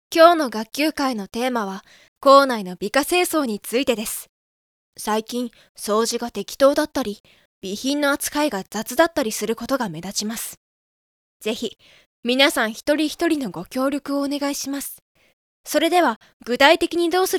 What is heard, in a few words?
boy